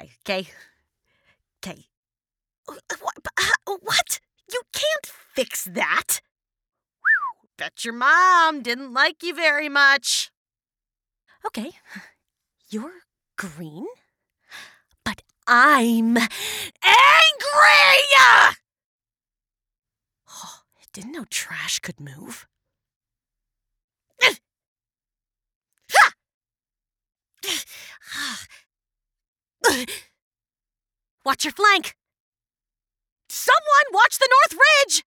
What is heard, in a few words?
Recruit Female